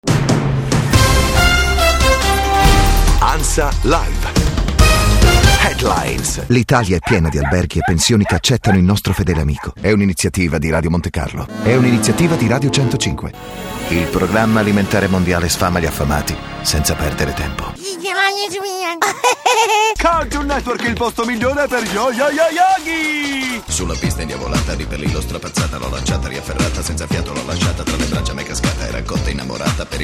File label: italian, Italian voice overs, Male